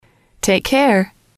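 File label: "take care", English, female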